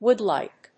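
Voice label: "woodlike", English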